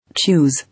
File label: "Choose", English